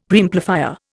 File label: "Preamplifier", Indian; Dictionary